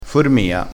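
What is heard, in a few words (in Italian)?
"formia", vocabolario dialettale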